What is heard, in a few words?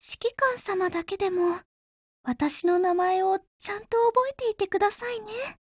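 dialogue wedding, Japanese; CAR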